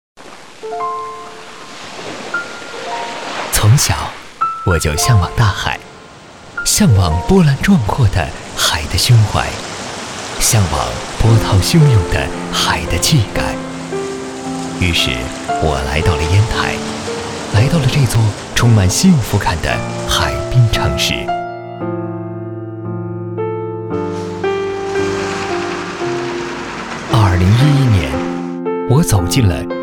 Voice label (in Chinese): 男 国 大音; 我的荏原梦